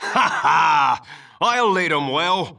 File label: Male; baldurs1